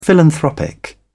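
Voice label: "philanthropic", British English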